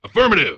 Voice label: punk affirm; Dystopia; vox new